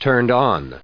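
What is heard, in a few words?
"turned-on", English, us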